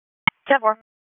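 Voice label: Dispatch, P25; wake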